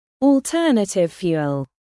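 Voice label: "alternative fuel", British English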